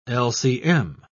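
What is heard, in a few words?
"lcm", English, British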